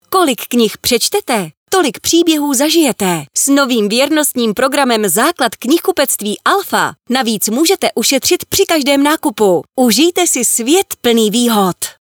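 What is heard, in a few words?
Czech, female, commercial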